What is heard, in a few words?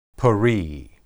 "peri", Hebrew